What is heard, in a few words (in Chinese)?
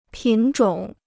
"品种", Chinese